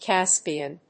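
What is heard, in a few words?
"Caspian", English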